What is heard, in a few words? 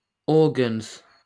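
"organs", British English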